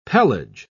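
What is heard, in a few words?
"pelage", English, British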